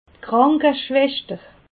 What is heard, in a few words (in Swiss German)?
"krankeschwester", alsace